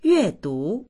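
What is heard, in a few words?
"yue4du2", Chinese; yuèdú; 阅读; 閲読（する）、読む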